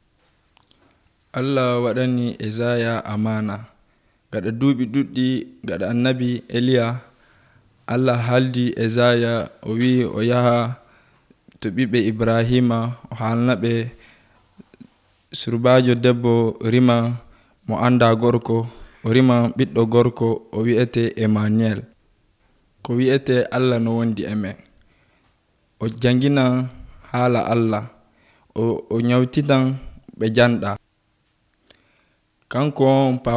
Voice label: western niger fulfulde, drama; fulfulde adamawa; bible stories; god with us dieu est avec nous; abc nt drama